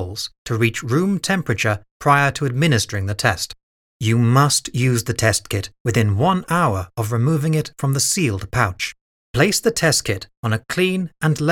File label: Covid Medical Explainer